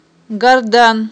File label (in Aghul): "гардан", aghul